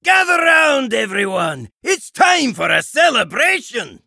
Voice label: Dwarf Emote Play

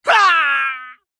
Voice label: Male Gnome Death

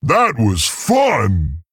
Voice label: Male Tauren Well Played

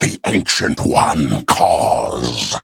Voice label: Male Arakkoa Play

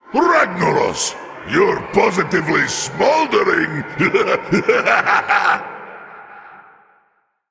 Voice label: Male Elemental Start; Ragnaros

